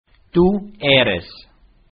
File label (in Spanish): "tu eres", Spanish